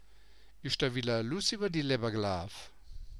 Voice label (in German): luss iwwa die läwwa, Mundart; Isch da willa e Luss iwwa die Läwwa gelaaf?; Hast Du was? Ist irgend etwas vorgefallen?